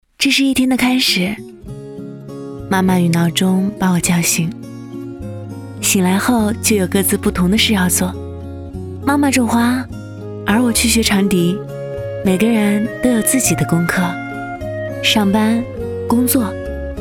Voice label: 女 走心旁白 年轻素人笑

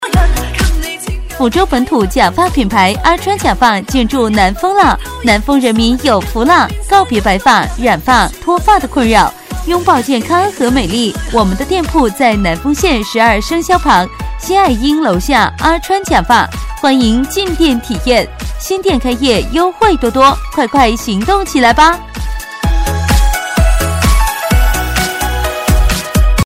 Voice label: 促销 假发品牌 配乐版, 女; 抚州本土

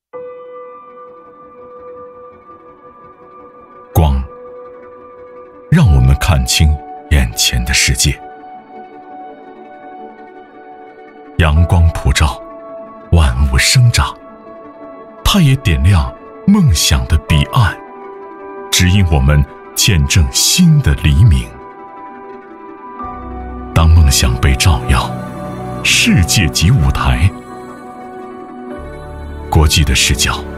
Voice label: 男 深沉大气风格

